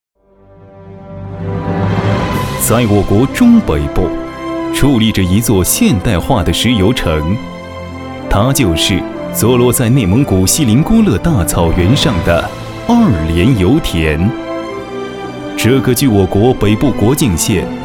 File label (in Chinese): 男 专题 企业宣传